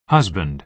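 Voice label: "husband", American English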